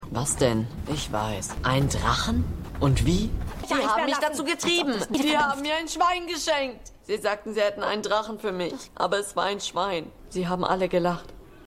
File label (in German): Jung, Stimmproben; Prinz Aemond Targaryen; House Of The Dragon